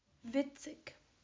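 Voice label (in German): "Witzig", German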